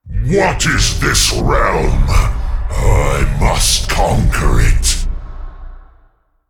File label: Male Demon Bark; Diablo